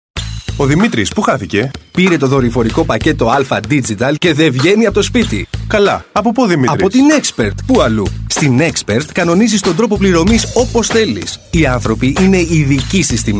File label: MALE VOICE